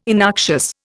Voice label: "innoxious", Indian